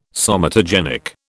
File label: "somatogenic", British